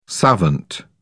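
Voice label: "savant", English, British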